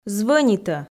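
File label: "звӧнитӧ", Komi, female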